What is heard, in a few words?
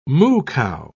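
"moo-cow", British English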